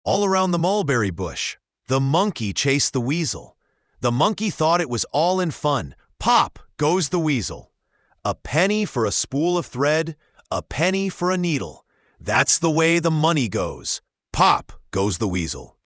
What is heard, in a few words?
male us; All Around the Mulberry Bush; ttsmp3